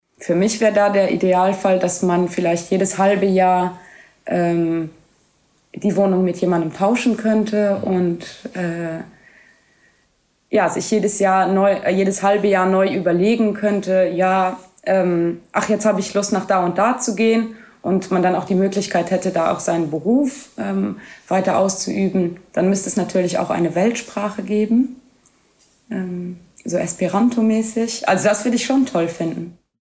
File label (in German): luxemburgisch